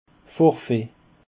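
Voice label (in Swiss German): "forfait", Alsatian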